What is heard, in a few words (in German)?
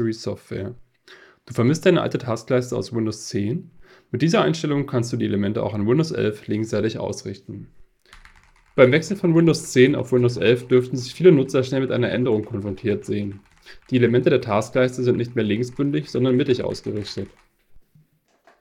Testaufnahme Gaming Mikrofon KI ANC; Steelseries Alias Pro